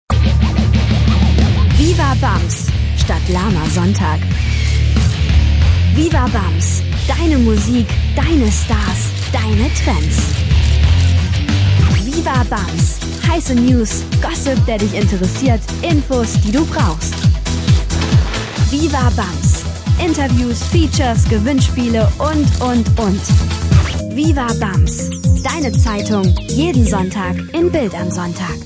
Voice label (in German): Werbung Dynamisch